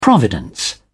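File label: "providence", British English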